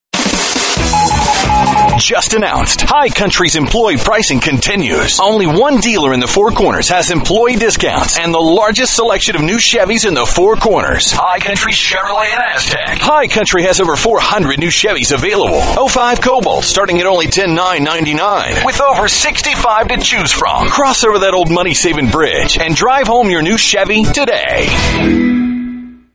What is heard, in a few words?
COMMERCIALS, Voiceover; Hi Country Chevrolet